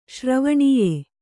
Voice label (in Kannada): "ಶ್ರವಣೀಯೆ", kannada